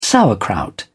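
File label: "sauerkraut", English, British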